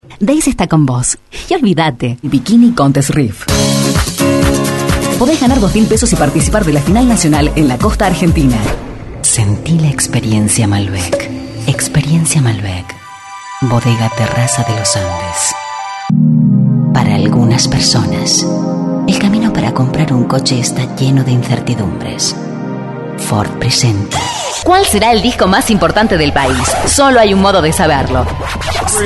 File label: LATAM F